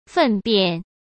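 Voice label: "粪便", Mandarin Chinese; chinese-flashcards; hsk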